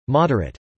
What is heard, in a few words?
"moderate", English